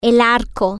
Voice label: "bow", Spanish